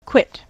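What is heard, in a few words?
"quit", US English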